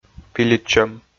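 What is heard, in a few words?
"пилитчӧм", Komi, male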